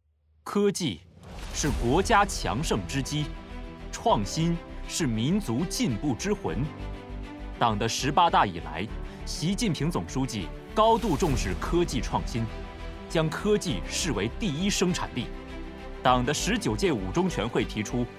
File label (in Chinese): 党政宣传片